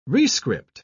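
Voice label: "rescript", British English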